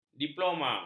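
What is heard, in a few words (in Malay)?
"diploma", Malay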